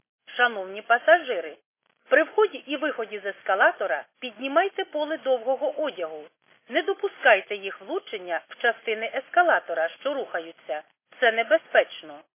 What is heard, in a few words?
khs st female, subway station